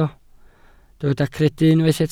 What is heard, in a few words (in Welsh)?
"credu" Welsh